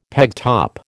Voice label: "pegtop", American

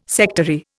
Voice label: "sectary", Indian

